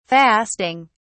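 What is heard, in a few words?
"fasting", British English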